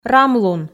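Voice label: "рамлун", Komi, female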